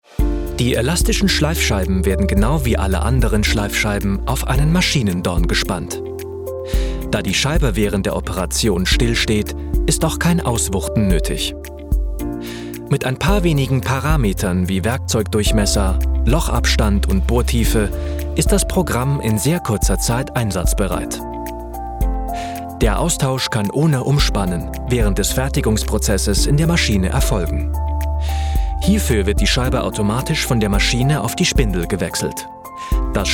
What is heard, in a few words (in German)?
E-Learning Technik informativ